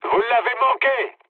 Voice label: bomb failure mood med, speech fr; War Thunder

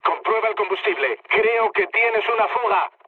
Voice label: tank damaged, mood low, speech; War Thunder

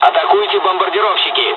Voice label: dialogs russian; War Thunder

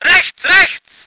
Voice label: voice ger vehicle

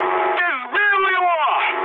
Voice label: player killed enemy, mood high, speech ru; War Thunder

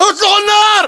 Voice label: order attack infantry, battlechatter, english

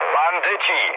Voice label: enemy detected mood low, speech pol; War Thunder

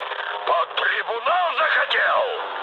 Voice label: ru player killed ally mood med; War Thunder

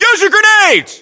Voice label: order action grenade, US

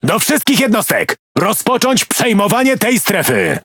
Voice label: Speech Quick Commands Enemy Point, PL; World Of Warships

